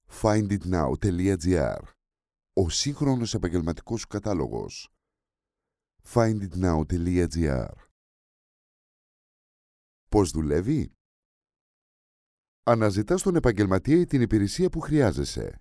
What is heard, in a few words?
greek documentaries